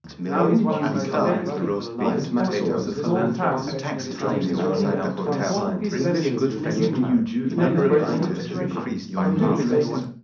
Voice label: male mix; SiSEC10; underdetermined

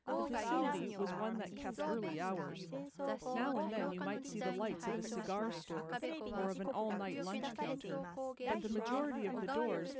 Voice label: female inst mix

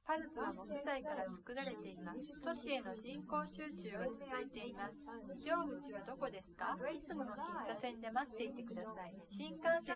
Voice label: test female liverec 250ms 5cm src; SiSEC08; SiSEC underdetermined

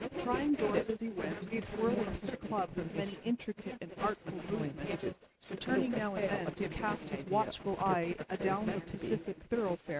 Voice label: female inst src; SiSEC08; SiSEC underdetermined

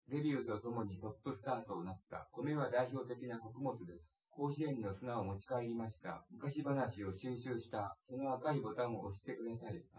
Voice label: male synthconv 250ms 5cm

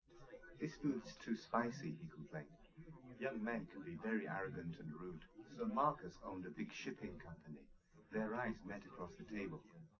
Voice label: male liverec; SiSEC11; underdetermined

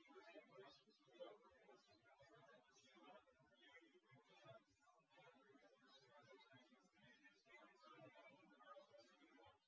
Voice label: male; SiSEC08; SiSEC underdetermined

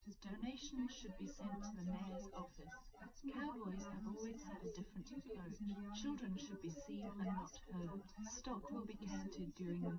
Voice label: female, live rec; SiSEC08; SiSEC underdetermined

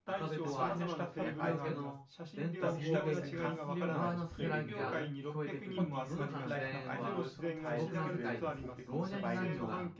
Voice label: male synthconv mix

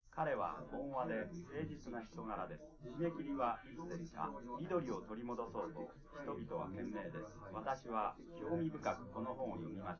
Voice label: male live rec

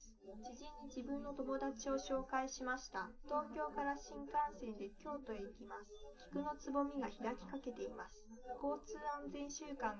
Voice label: female, live rec, 250ms, 1m

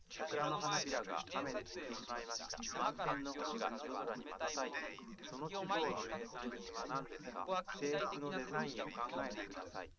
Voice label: male liverec

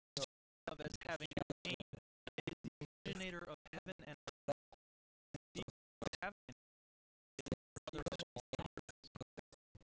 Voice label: male inst sim; SASSEC07

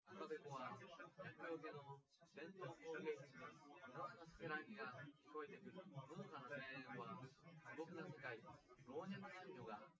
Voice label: male; SiSEC08; SiSEC underdetermined

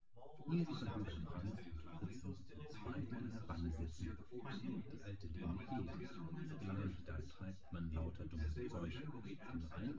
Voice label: male synth conv 1m sim, male; SASSEC07

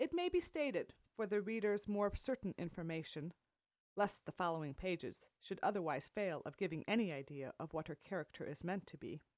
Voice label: female inst sim; SASSEC07

